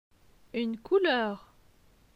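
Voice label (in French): "Une couleur", French; phonetique; les consonnes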